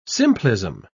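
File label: "simplism", British English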